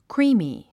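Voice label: "creamy", English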